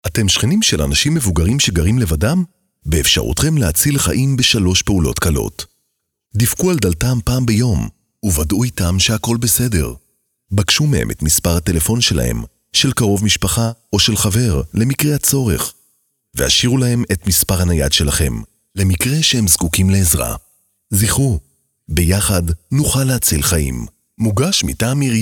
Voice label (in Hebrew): תשדיר; עיריית חיפה; קשישים